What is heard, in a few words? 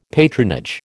"patronage", American